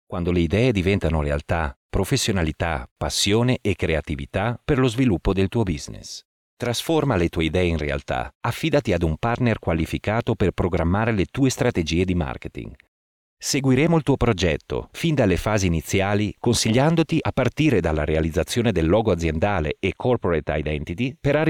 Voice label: ITALIAN